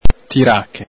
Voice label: "tirache", Veneto

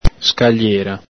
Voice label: "scaliera", Veneto

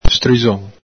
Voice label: "strixon", Veneto

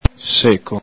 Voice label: "seco", Veneto

